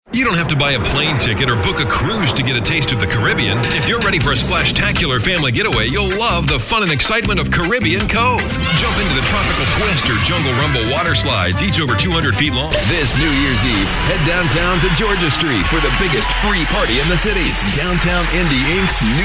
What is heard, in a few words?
Commercial Upbeat